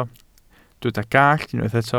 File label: "gallt", welsh